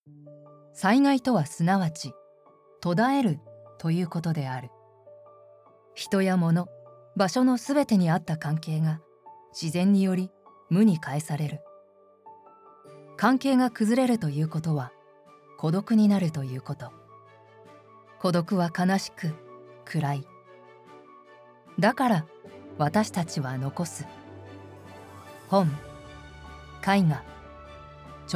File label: serious; desaster recovery